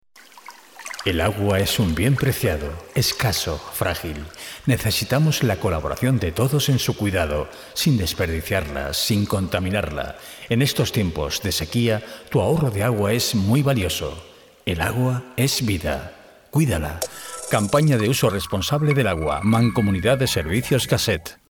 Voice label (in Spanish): cuña AHORRO AGUA; MANCOMUNIDAD DE SERVICIOS GASSET